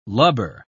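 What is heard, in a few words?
"lubber", British English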